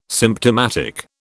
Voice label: "symptomatic", British

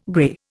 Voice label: "grey", Indian

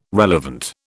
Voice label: "relevant", British

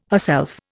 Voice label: "herself", Indian

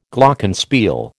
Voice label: "Glockenspiel", American

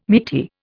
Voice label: "meaty", Indian

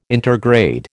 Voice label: "intergrade", American

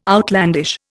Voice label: "outlandish", Indian

